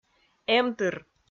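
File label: "эмтыр", Komi, female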